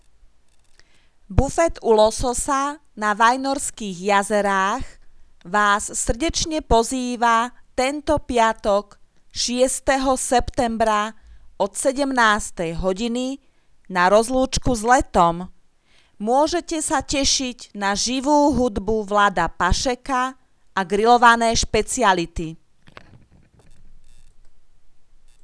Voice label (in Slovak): komercne hlasenie bufet; bufet u lososa